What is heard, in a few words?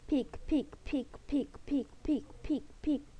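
"pick", spoken word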